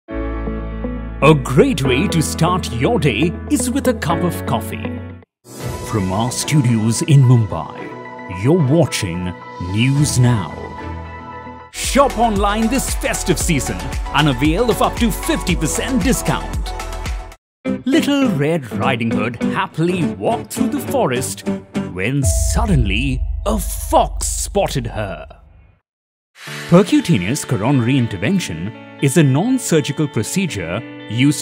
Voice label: English British